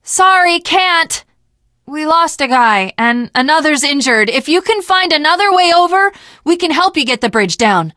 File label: initial meeting, teen girl; Left 4 Dead 2; Source Engine; video games